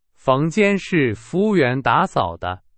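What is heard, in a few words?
Chinese; hsk2; 是。。。的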